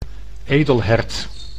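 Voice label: "edelhert", Dutch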